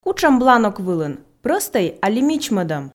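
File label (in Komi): кутшӧм бланок вылын прӧстӧй али мичмӧдӧм, Komi, female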